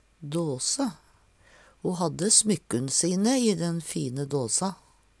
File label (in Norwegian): "dåse", Numedalsmål